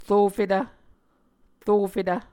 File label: "rofetv", muscogee